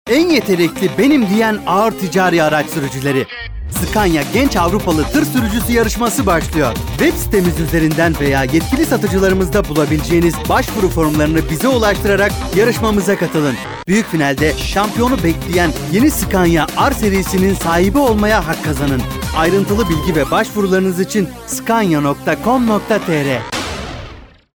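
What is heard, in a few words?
Turkish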